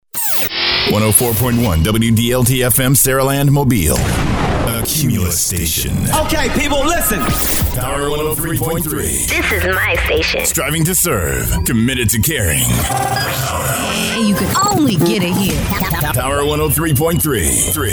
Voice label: Radio Imaging